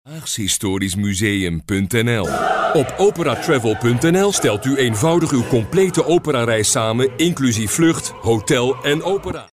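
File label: Dutch, male, commercial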